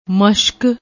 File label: "mashak", Punjabi; ਮਸ਼ਕ; مشق; noun, feminine